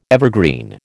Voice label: "evergreen", American